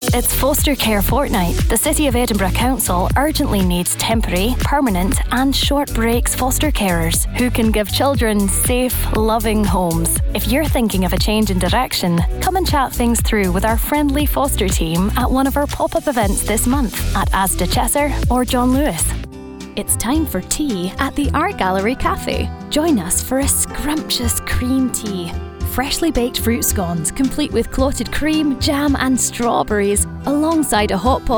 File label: scottish commercial, english female voices scottish accent